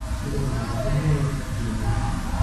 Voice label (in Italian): possibile voce non umana sembrerebbe dire sei una vergina